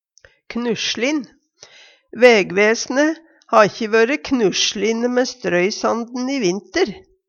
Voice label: "knuslin", Numedalsmål

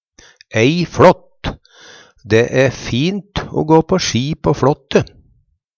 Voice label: fLått, Numedalsmål; Dæ e fint o gå på ski på Flåtte.; Substantiv hokjønn

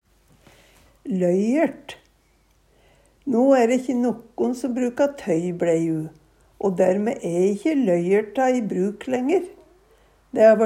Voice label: loyert, Numedalsmål; løyert

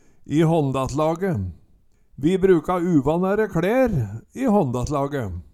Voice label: i hanndasslage, Numedalsmål; Vi bruka uvannære kLer i hånndasslage

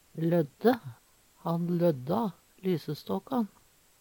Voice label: lodde, Numedalsmål; Verb; Handverk (metall, tre, lær)

